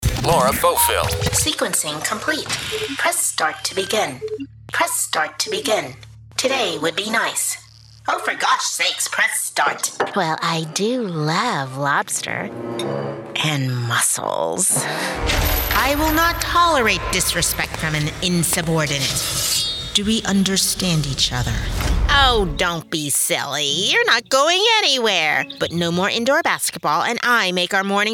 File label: animation